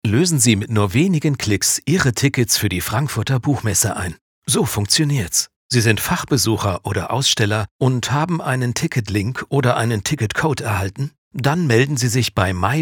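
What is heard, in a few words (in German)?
sachlich seriös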